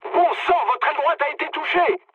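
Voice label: right wing, mood medium, French; War Thunder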